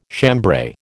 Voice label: "chambray", American